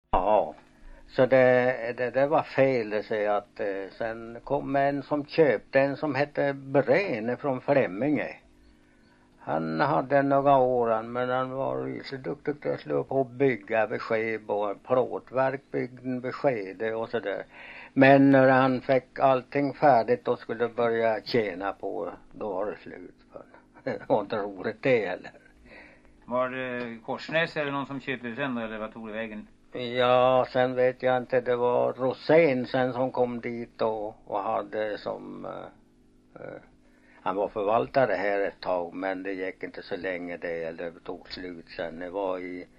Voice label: dialekter; Folkminnen

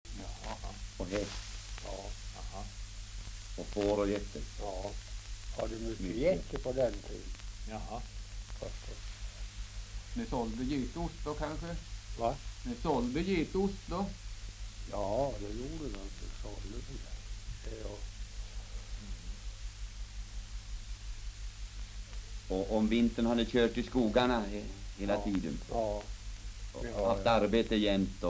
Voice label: dialekter; Folkminnen

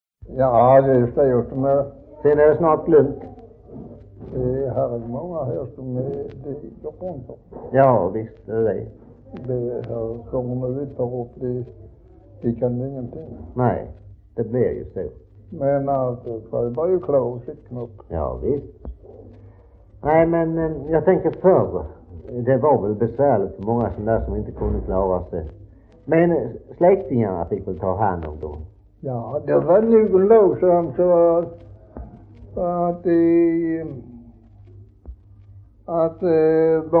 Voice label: Västra Ingelstad, dialekter; Folkminnen

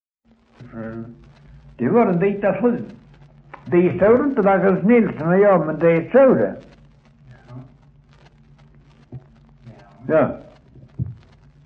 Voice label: Östra Ingelstad SK, dialekter; Folkminnen

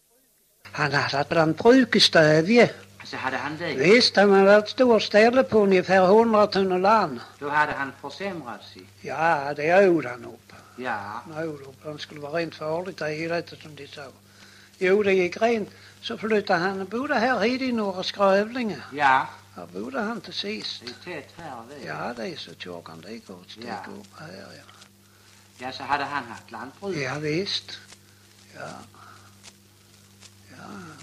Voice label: Lackalanga, dialekter

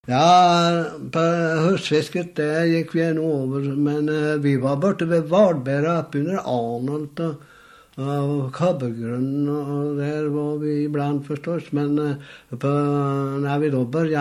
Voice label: Askum, dialekter; BHL

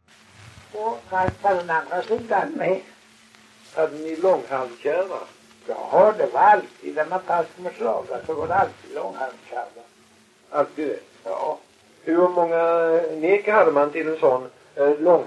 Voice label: Kristianopel BLEK, dialekter; Folkminnen

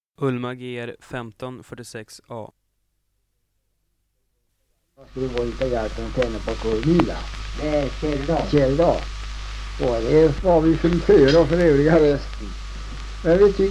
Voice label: Ljustorp, dialekter; Svenska sagor; Folkminnen

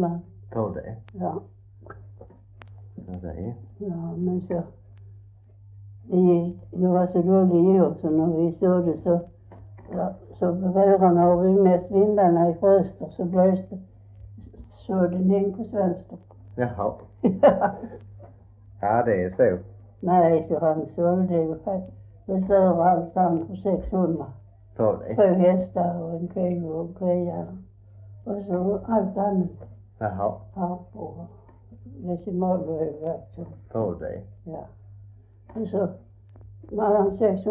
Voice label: S Svedala, dialekter; Folkminnen

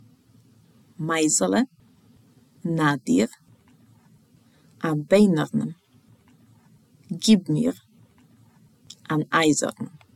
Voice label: Ordlista, Jiddish

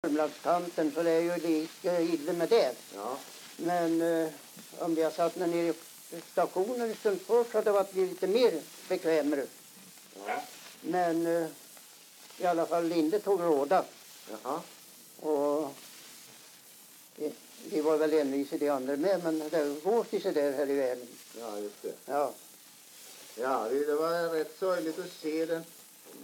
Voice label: dialekter; Folkminnen

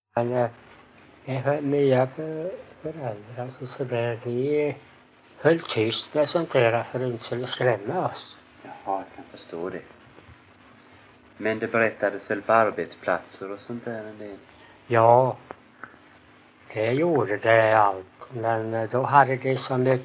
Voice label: Ingatorp SMÅL, dialekter; Folkminnen

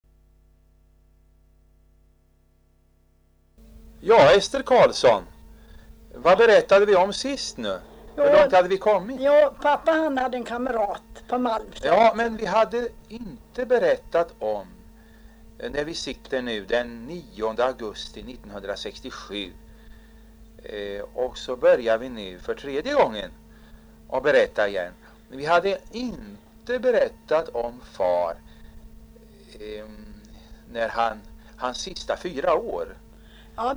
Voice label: dialekter; Folkminnen

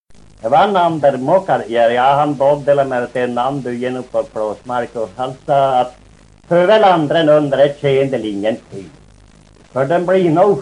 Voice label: Hortlax, dialekter

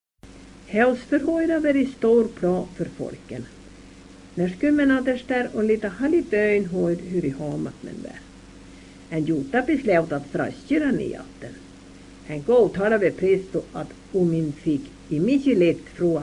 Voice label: Overkalix, dialekter

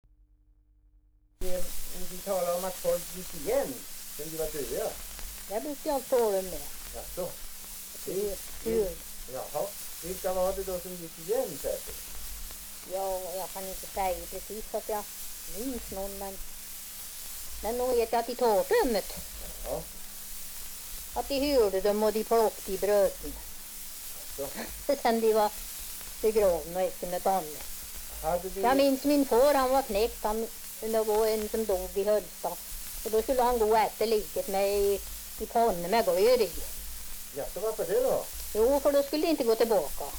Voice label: dialekter; Folkminnen

